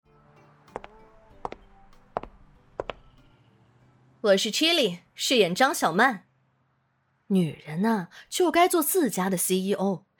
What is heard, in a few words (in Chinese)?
多人剧